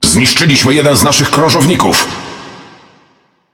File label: Speech Destroy Ally Cruiser, PL; World Of Warships